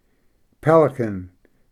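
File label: "pelican", English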